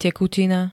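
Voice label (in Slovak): "tekutina", Slovak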